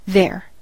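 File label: "they're", US English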